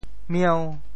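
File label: "mieu5", Teochew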